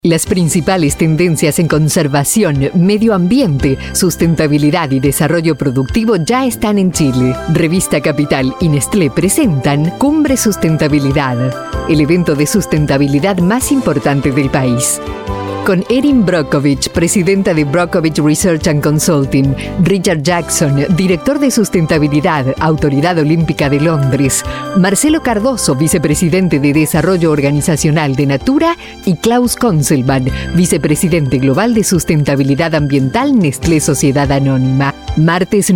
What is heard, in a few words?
voice over female commercial